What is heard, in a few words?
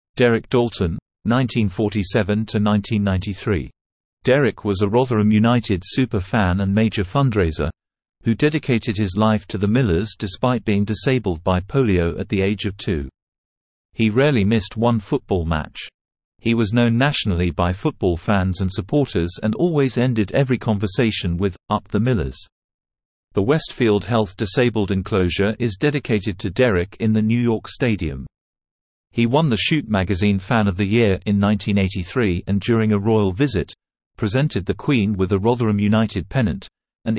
Male